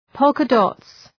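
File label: "polka dots", English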